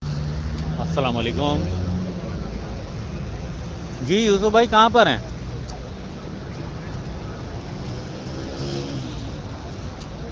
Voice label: Call Recordings Survey